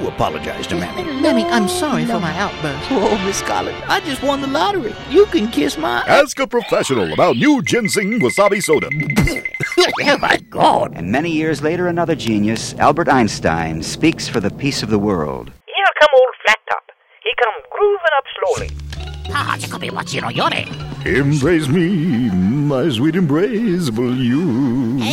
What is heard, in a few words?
cartoon voice